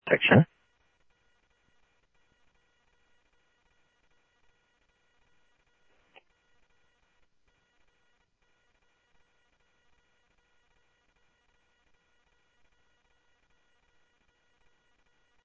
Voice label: Tempe Police, scanner audio; TEMPE PD A02; SimulcastF-Tempe